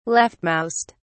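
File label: "leftmost", British English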